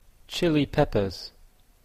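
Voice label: "chili peppers", Canadian English; chillies , chili peppers; babelcoach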